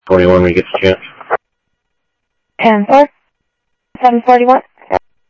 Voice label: Sheriff, scanner audio; South Scottsdale; MCSO - EAST Sheriff-EastCnty